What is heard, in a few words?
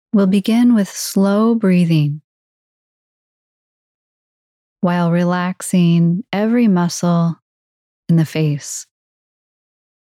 Full Body Relaxation; BODY-SCAN; Oura; Sleep